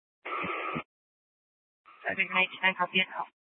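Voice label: Police Department Dispatch, scanner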